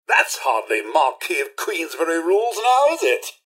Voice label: assault, robot; mrhandy